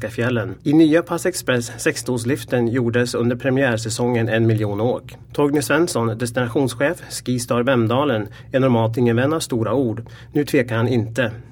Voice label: swedish commercial; Young, upbeat, and unpolished.